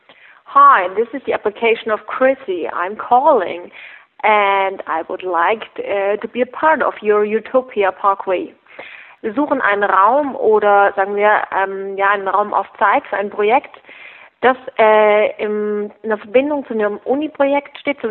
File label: Voicemail